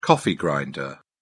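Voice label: "coffee grinder", English, British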